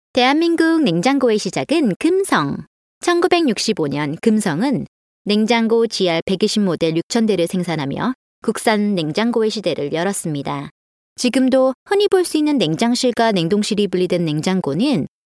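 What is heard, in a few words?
Korean, Narration; GoLocalise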